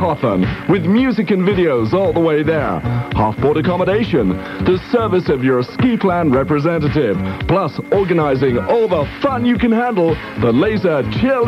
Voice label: commercial holiday; Ski Plan Communicator Club